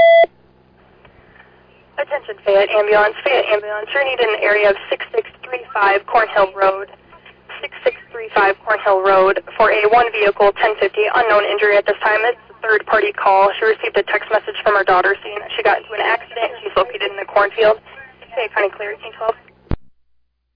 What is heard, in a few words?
Ambulance, dispatch